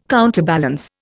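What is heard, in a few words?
"counterbalance", Indian English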